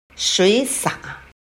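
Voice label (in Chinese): "水洒", Chinese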